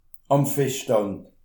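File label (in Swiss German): "fischstand", Alsatian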